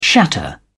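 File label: "shatter", British English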